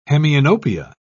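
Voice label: "hemianopia", British English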